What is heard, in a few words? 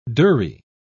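"durrie", British English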